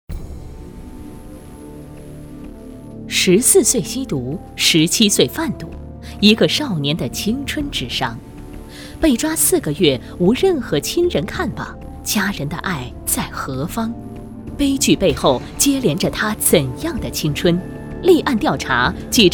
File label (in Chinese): 女, 法制栏目; 丽案调查